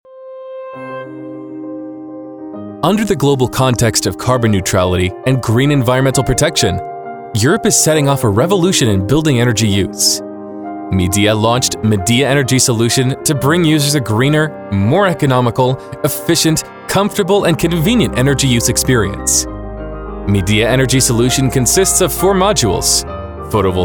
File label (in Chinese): 外籍 活力 时尚 轻松